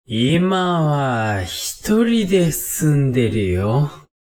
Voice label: man